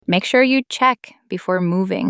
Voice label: Female Check Before Moving